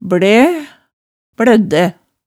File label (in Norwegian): "blæ, blædde", hemsedals maalet tale